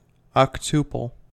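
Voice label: "octuple", American English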